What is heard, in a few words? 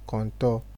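"contour", Nigerian Pidgin, male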